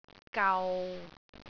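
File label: "gau6", Cantonese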